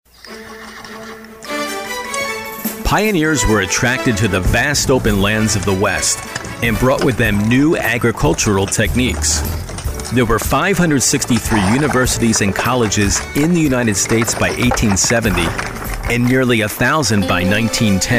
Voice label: audiobooks